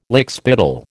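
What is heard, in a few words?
"lickspittle", American English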